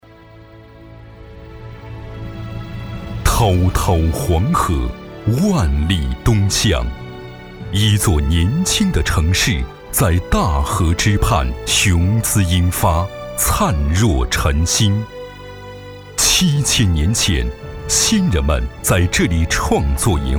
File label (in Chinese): 男39 专题; 乌海市